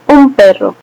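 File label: dog desc, Spanish; tuxpaint-stamps; animals; mammals; dogs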